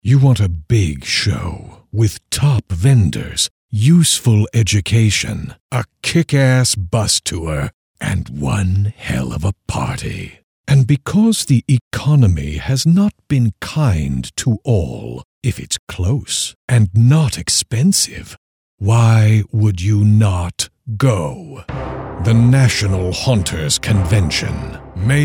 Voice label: radio commercial